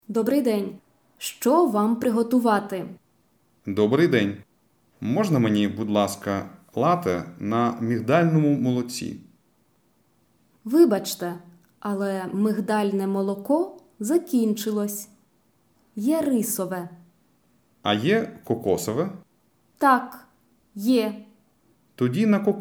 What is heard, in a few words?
Dialogue Slow